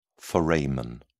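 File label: "foramen", en, uk British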